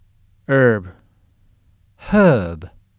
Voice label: "HERB", USUK Pronunciation

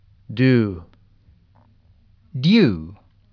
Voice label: "DUE", USUK Pronunciation

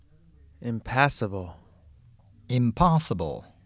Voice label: "IMPASSABLE", USUK Pronunciation

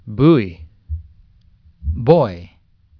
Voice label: "BUOY", Pronunciation

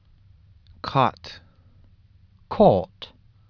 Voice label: "CAUGHT", USUK Pronunciation

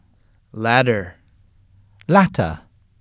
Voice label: "LATTER", USUK Pronunciation

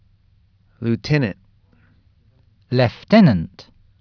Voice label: "LIEUTENANT", USUK Pronunciation

